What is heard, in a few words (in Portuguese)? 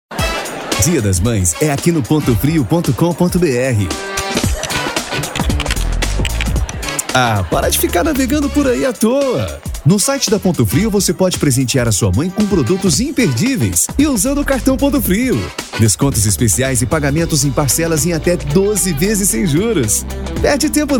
locutor